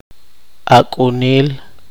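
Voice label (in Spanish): adolescencia del varón, poqomchi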